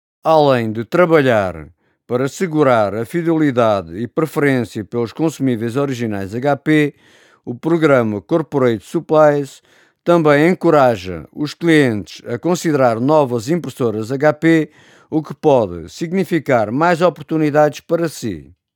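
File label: portuguese male commercial; voice demos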